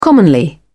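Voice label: "commonly", British English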